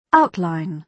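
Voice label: "outline", British English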